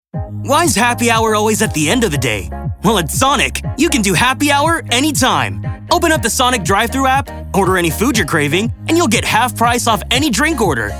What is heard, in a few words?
vo commercial